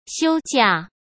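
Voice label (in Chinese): "休假", Mandarin Chinese; chinese flashcards; hsk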